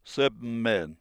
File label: casual